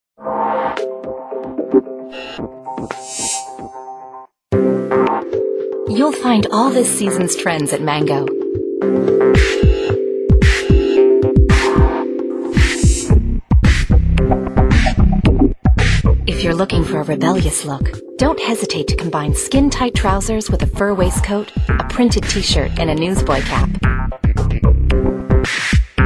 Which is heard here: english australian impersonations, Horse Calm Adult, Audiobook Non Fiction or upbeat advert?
upbeat advert